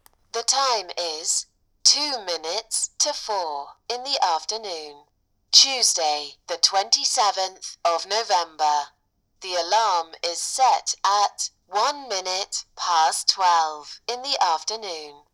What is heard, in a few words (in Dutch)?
GB vrouw